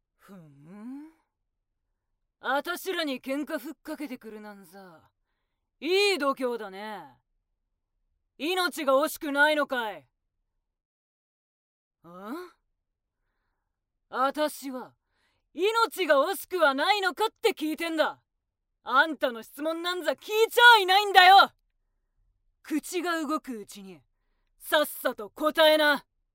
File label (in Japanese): アニメ